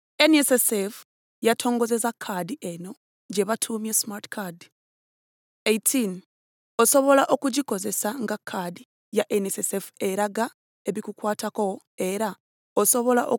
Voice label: LUGANDA